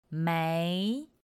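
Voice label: "méi", Chinese; pinyin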